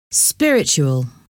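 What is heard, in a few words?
"spiritual", English word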